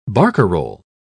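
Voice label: "barcarolle", British English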